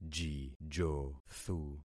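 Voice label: "jijothu", concatenated syllables; Affixing experiment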